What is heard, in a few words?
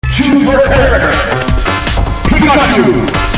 announcer English